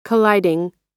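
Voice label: "colliding", American English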